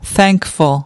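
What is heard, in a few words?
"thankful", English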